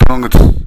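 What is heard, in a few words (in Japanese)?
"tonoguzu", 南山形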